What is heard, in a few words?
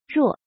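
"ruo4" Mandarin Chinese, falling tone; chinese-voice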